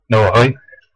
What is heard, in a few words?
where are you going, West Flemish